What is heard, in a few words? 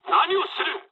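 Japanese attack position mood low; War Thunder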